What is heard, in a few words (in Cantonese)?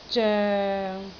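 "zoeng6", Cantonese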